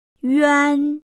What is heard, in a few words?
"üan", Pinyin; PinyinChart